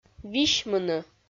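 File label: "висьмыны", Komi, female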